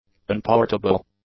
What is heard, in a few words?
"unportable", english; adjectives